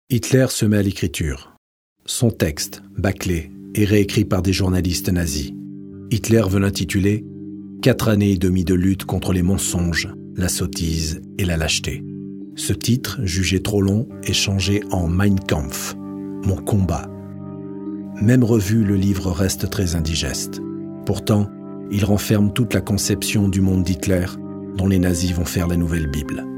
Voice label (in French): Narration; Hitler; maquette